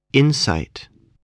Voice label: "insight", voice spelling